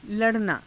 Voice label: "la ranaa", Hindi